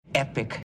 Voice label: Voices epic; Star Trek Adversaries